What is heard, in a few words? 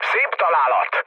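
Hungarian bomb success mood low, speech; War Thunder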